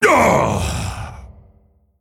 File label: Male Mogu Death; JadeWarlord